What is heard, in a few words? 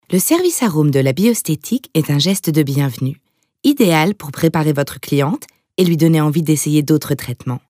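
French e-learning; sprecher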